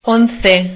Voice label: "once", spanisch